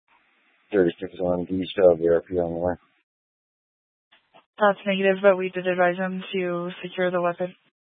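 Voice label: Police 1 Dispatch, Scanner audio recording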